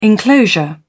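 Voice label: "enclosure", British English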